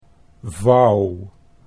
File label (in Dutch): "vouw", Dutch